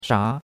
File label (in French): "sha2", chinois; Cours de Chinois